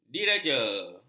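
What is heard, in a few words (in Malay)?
"diraja", Malay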